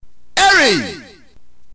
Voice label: narrator